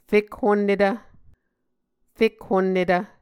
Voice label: "fekhonnetv", muscogee